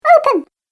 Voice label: Cartoon Game Voice "Open"

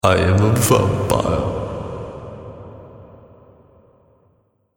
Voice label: Dracula, I Am A Vampire, evil voice; Horror Sound Effect

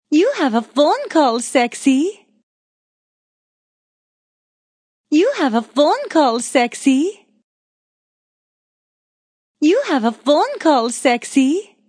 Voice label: Female Phonecall Sexy Accent; Human Vocal Ringtone; Human Vocal (Sound Effects:Ringtones)

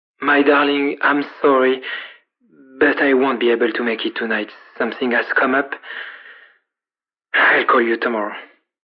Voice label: Phone regret, Male, Telephone Voice; VoiceBox

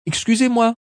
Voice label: Excuse Me French, Male; Talking Sound Effects

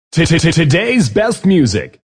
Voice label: Todays Music, Male; Radio

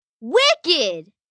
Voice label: Wicked, Reaction, 1 child, 9 yrs.; Talking; VoiceBox

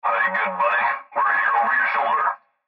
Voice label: Cb Trucker Radio; Radio Voice; Blastwave

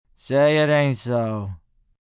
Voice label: Say It Aint So, Reaction, negative, male; Exclamation; VoiceBox

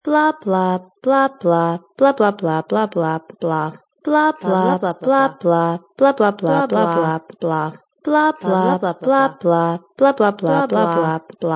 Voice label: Blah Blah Blah Woman; Human Vocal Ringtone; Human Vocal (Sound Effects:Ringtones)

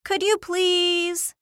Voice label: Cartoon Voice Female; Cartoon Voices

